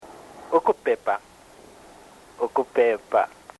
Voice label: ukupepa ukupeepa, bemba